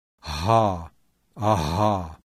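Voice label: voiced glottal fricative; IPA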